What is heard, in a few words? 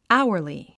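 "hourly", 英単語 発音; ǽuə r li アウアリィ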